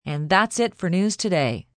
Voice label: News Thats It F, Broadcast, announcer, wrap-ups, female; greetings/wrap-ups; VoiceBox